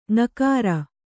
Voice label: "ಙಕಾರ", Kannada; ŋkāra; noun; the nasal consonant ŋ

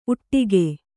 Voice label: "ಊಟಗ", Kannada

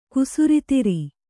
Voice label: "ಕುಸುರಿತಿರಿ", Kannada

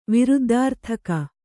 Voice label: "ವಿರುದ್ದಾರ್ಥಕ", Kannada

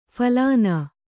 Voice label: "ಫಲಿನಿ", kannada

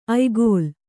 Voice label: "ಆಯಗಾಲು", kannada

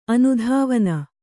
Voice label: "ಅನುಧಾವನ", kannada

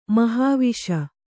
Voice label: "ಮಹಾವಿಷ", Kannada

